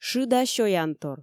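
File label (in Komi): "шыда сёянтор", Komi, female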